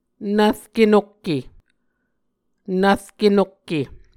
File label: "nvrke nokke", Muscogee; Mvskoke Words with Repeated Consonants; Martin, Jack B., and Margaret McKane Mauldin. 2023. A Dictionary of Creek/Muskogee